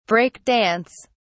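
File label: "break dance", British English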